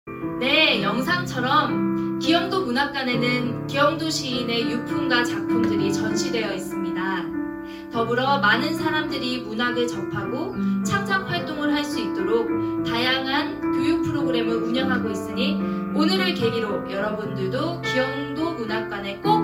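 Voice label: Korean, Commercial; GoLocalise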